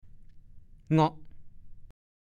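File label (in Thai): "เง่าะ" ภาษาโคราช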